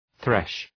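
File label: "thresh", English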